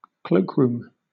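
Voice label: "cloakroom", British English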